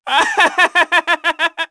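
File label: Vox Happy, Korean; Neraxis; kingsraid; voices heroes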